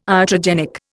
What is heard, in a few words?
"iatrogenic", Indian